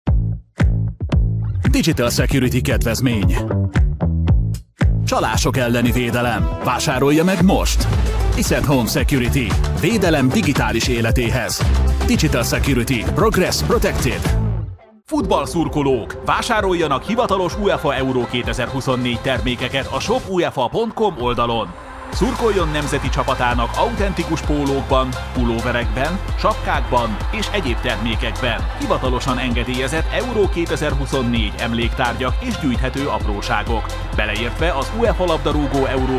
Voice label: hungarian commercial

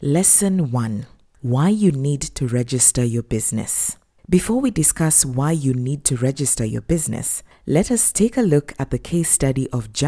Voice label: english african e-learning

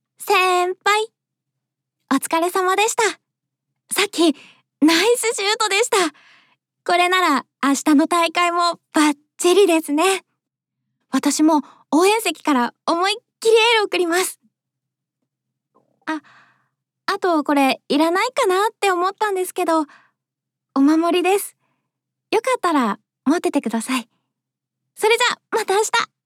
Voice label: japanese animation

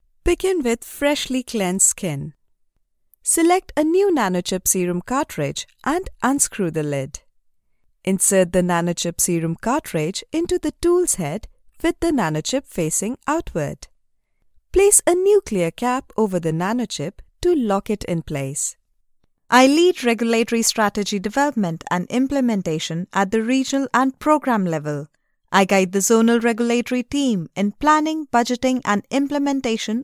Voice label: english e-learning

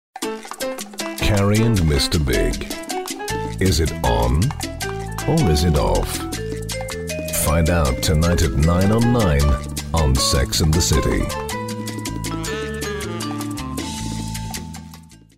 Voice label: english british tv shows

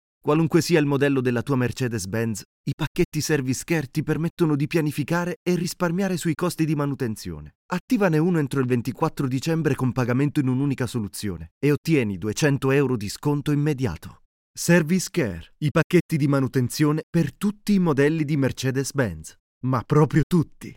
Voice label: italian commercial; Italien; doubleur professionnel italien

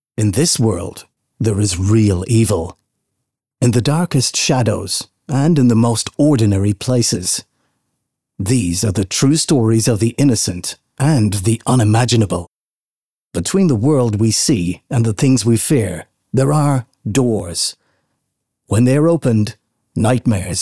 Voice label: english irish video games, irish voice actors

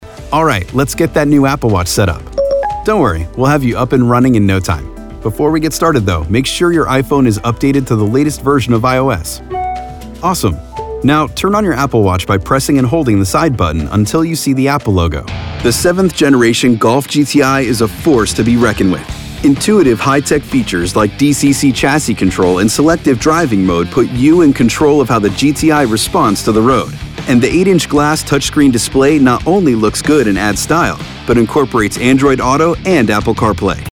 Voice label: english american corporate videos

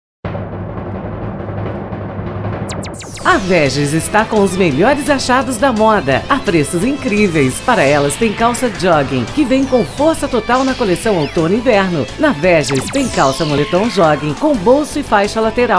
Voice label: portuguese corporate

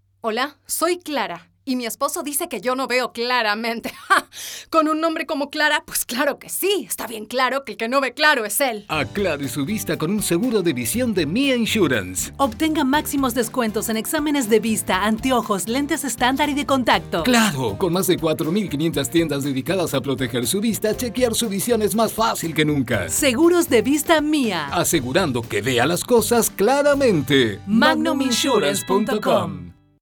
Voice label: Spanish Latin American radio imaging

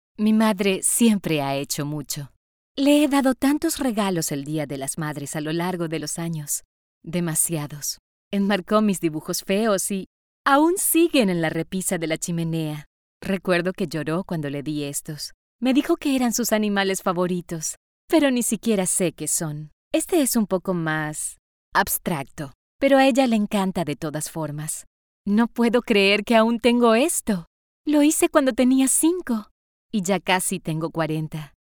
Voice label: spanish latin american

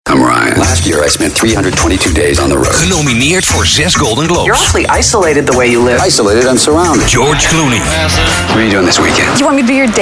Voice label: dutch movie trailers

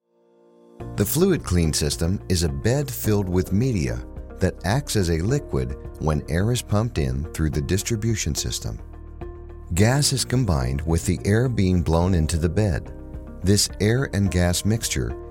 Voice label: english american e-learning

